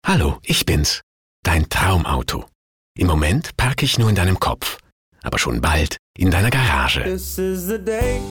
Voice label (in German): Mann